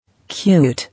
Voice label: "Cute", English